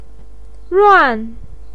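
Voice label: "ruan", Mandarin Chinese syllable, fourth tone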